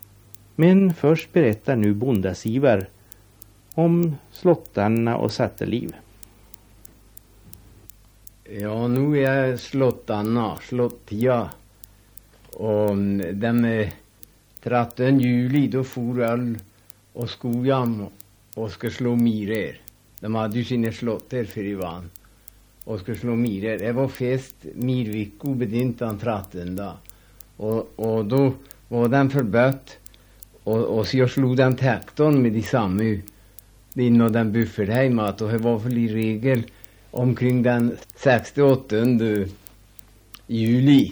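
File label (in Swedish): Lima Dalarna, dialekter; Folkminnen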